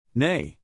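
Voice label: "neigh", horse sound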